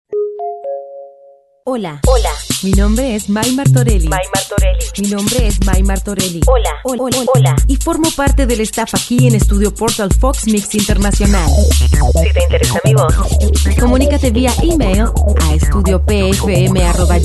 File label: Spanish radio imaging